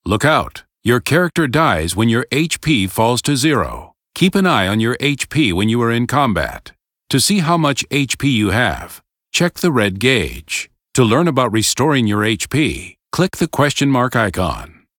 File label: tutorial voice; lineage2